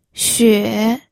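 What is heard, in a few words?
"xue3", Mandarin Chinese pinyin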